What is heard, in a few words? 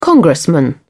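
"congressman", British English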